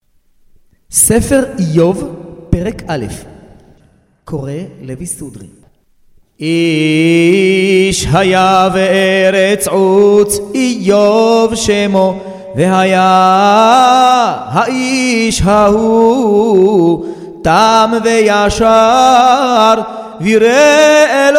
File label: recorded tanach iyov sefardi moroccan